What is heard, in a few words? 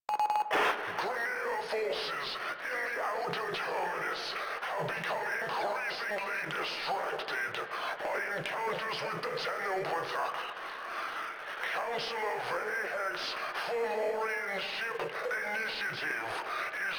Announcements, Radio Interceptions